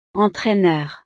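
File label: "entraineur", French; profesiones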